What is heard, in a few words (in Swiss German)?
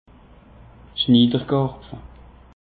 "schniiderkarpfel", alsace; peche